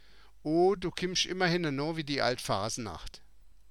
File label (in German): ald faasenachd, Mundart; Oh, du kimmsch imma henne'nòò wie die ald Faase'naachd!; Oh, Du kommst immer zu spät!